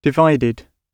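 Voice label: "divided", British English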